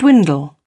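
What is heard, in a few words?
"dwindle", British English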